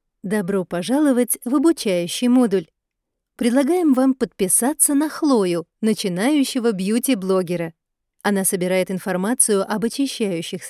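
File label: elearning russian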